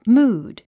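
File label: "mood", English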